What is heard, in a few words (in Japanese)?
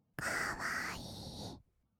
「かわいい」, kawaii voice; 1200 kawaii voice!!; 色々なワンショット